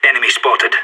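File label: radio enemy spotted, voice; agents; sas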